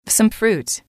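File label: "some Fruits", female, english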